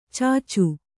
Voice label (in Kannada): "ಚಚು", Kannada